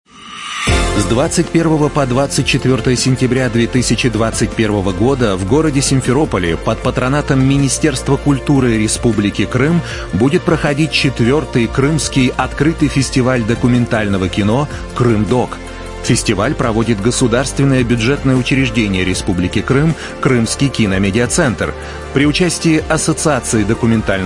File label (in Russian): golos muz